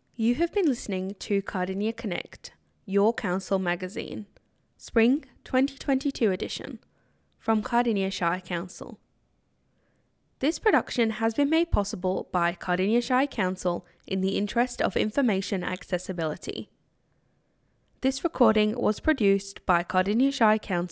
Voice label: closing announcement